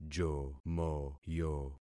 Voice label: "jomoyo", concat syllables; Affixing experiment